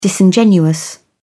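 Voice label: "disingenuous", British English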